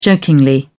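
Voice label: "jokingly", British English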